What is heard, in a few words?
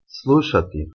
"sluSati", Bosnian